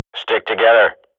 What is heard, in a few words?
radio bot regroup, Counter-Strike swat; Global Offensive; player vo